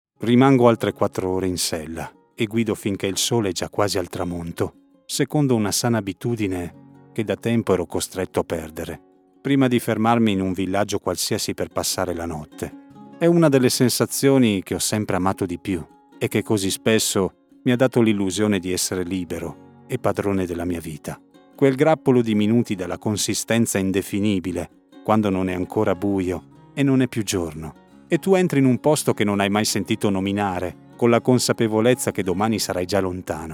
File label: ITA NARRATION PODCAST